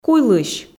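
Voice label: "куйлысь", Komi, female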